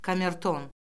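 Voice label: "kamerton", Azerbaijani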